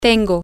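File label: I am with, Spanish, female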